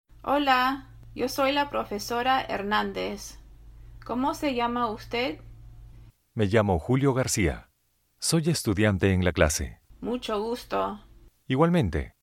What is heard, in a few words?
dialogue, Spanish